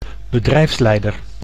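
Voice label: "bedrijfsleider", Dutch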